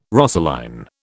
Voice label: "rosaline", British

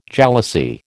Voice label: "jalousie", American

